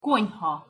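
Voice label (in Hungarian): "konyha", Hungarian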